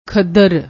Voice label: "khaddar", Punjabi